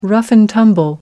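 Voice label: "rough and tumble", British English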